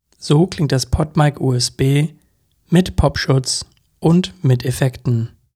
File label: Podmic USB pop; fx